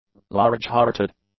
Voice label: "large-hearted", english; adjectives

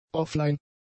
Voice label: "offline", German; adjectives

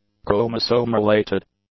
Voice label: "chromosome-related", English; adjectives

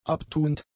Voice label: "abtuend", german; adjectives

